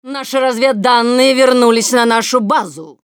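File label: enemy returned, Russian; Intel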